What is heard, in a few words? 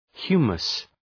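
"humus", English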